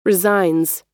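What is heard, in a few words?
"resigns", US English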